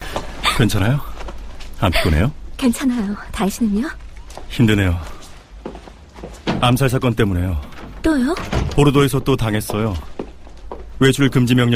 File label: TV Series Dubbing Korean